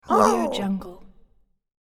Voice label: cartoon crow surprised; cartoon sounds

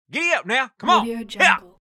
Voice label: cowboy saying giddup now come on; cartoon

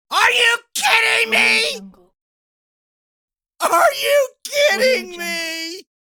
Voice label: male shouting comical "are you kidding me"

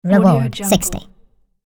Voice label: cartoon voice says reward

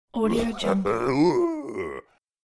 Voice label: cartoon caveman surprised

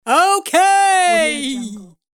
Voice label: female goofy okay; cartoon

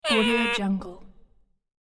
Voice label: cute baby dino disappointed; cartoon; cartoon sounds

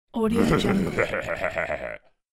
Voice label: caveman proud admitting, cartoon sounds; خنده مغرورانه

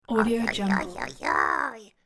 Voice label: cartoon crow troubled; cartoon sounds

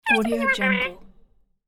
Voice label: cute baby dino asking; cartoon; cartoon sounds

